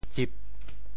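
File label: "tsip4", Teochew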